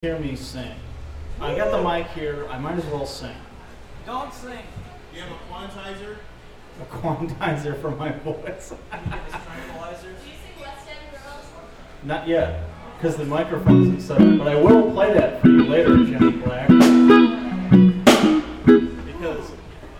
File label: banter; One World - December 2 2000